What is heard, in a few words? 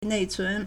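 "nei4cun2", Mandarin Chinese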